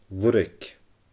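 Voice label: "Luirg", Scottish Gaelic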